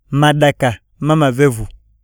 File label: malaria, iyasa